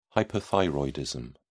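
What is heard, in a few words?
"hypothyroidism", British English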